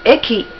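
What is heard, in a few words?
"EKI", Japanese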